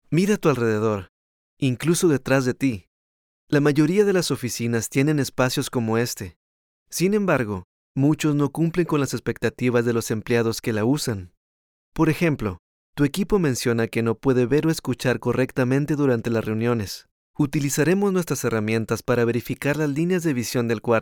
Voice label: spanish latin american explainer videos

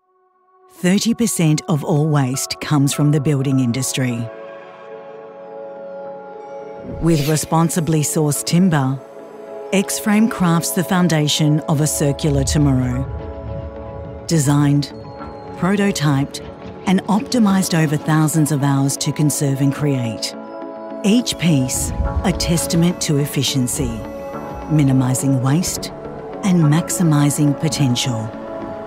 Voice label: english australian corporate videos

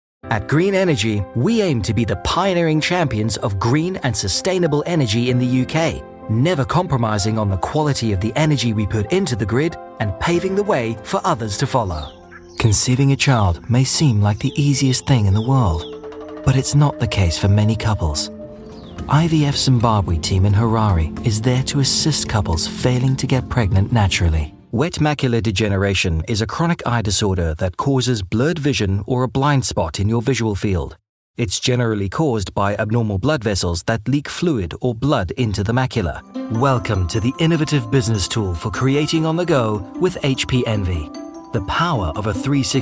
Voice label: english international commercial

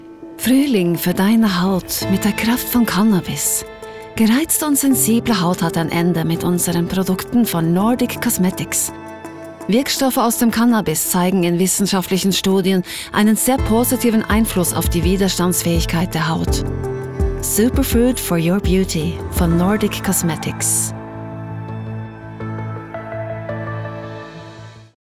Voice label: german

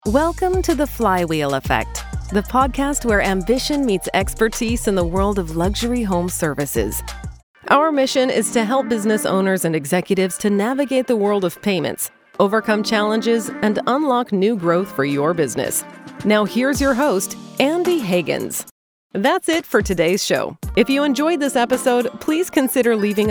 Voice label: english canadian podcasts

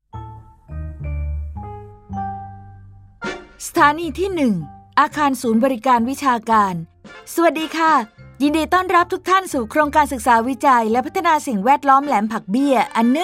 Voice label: thai commercial

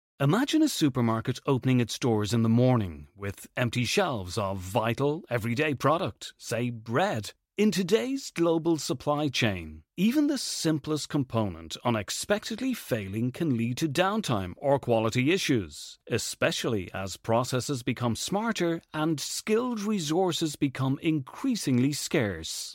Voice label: english irish corporate videos; irlandés/inglés residente en Dublín

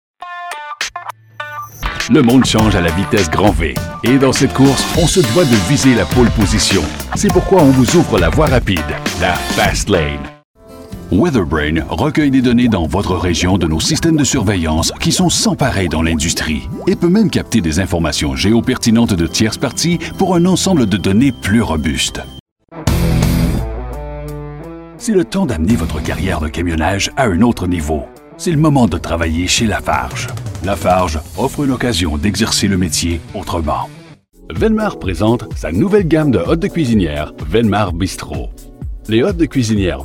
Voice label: french canadian corporate videos

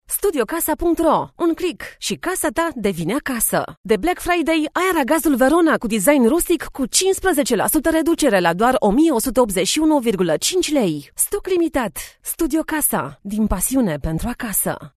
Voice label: romanian others

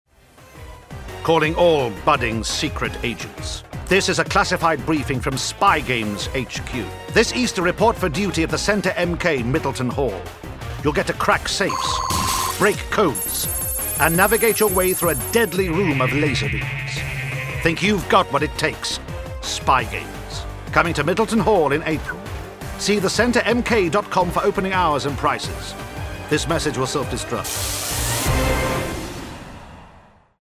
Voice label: english british tv shows

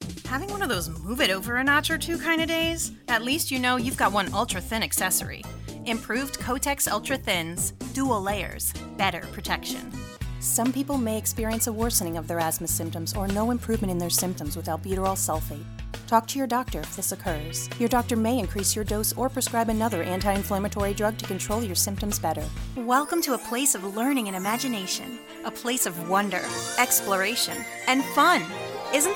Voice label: english american others

